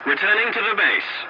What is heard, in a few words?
voice message return to base, english